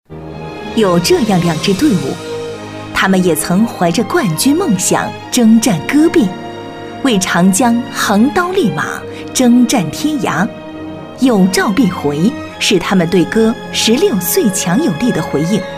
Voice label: 颁奖样音

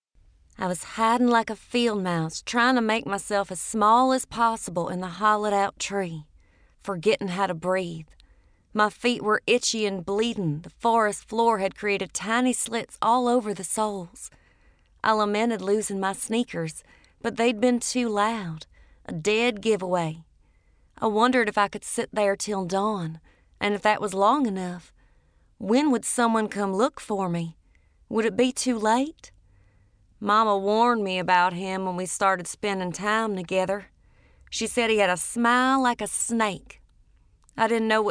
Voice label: 外籍英语女声电子书

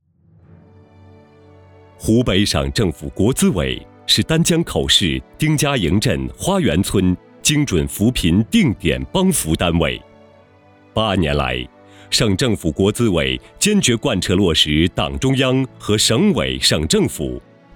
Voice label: 大气专题; 湖北国资委

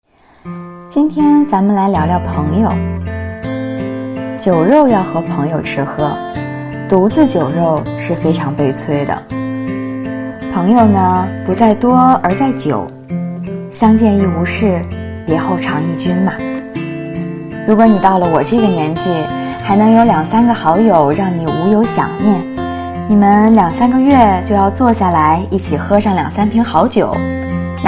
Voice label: 电台节目; 冯唐

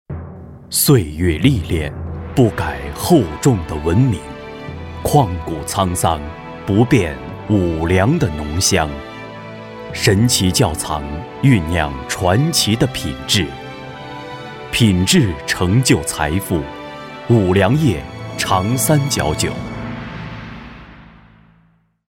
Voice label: 广告 品牌 大气 稳重

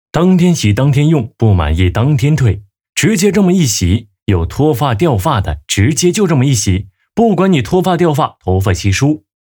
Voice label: 带货

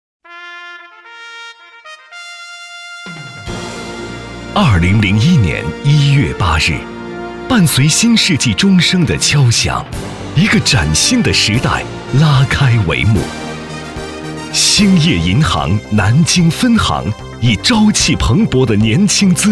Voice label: 企业宣传; 兴业银行

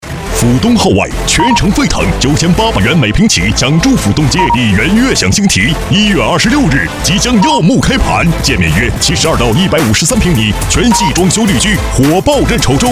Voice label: 楼盘 广告; 荔园悦享星醍

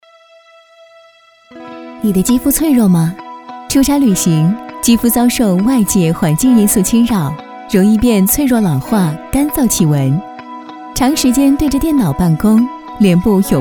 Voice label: 广告 护肤; 姿完美

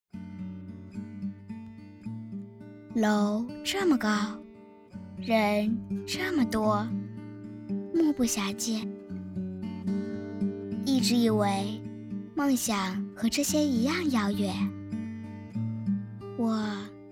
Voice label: 真女童; 春蕾计划

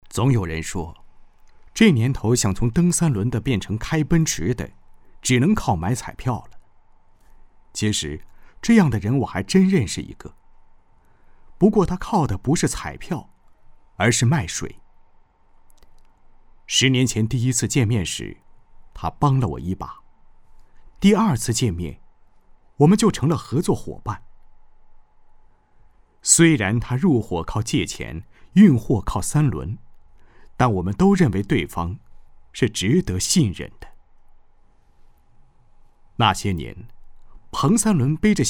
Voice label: 旁白试音

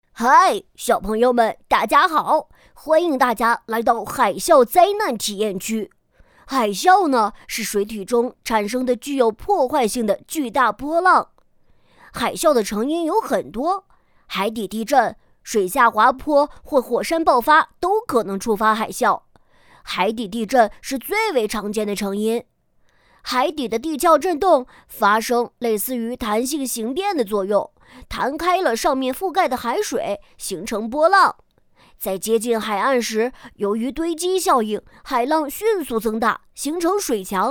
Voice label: 憨厚 男中童; 地震体验

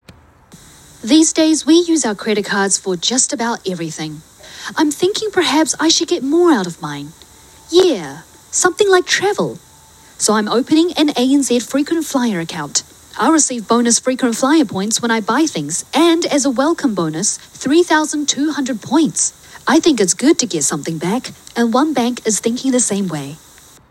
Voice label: 英文 广告 配音

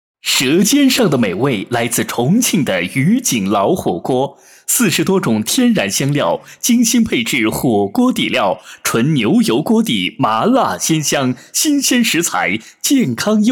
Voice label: 老火锅广告配音; 重庆渝景

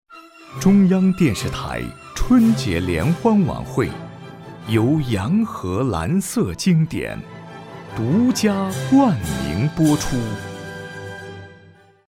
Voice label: 央视广告语; 洋河蓝色经典

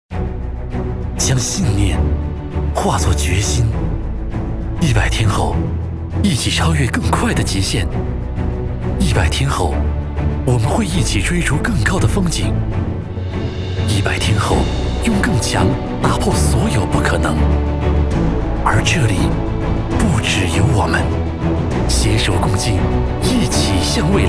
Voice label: 广告 激情有力度; 奥运会

